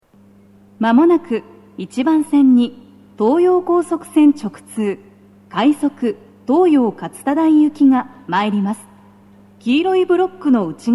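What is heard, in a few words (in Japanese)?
接近放送, 女声, BOSE天井型での収録です。; 放送は「快速 東葉勝田台行き」です。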